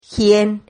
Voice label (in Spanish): "gien", Silabas